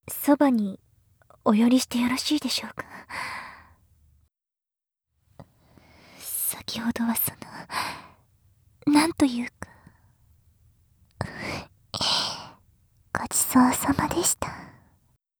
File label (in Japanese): 事後コメント ごちそうさまでした, ASMR; 清楚ビッチエルフ姫のメガ盛りマントロフルコース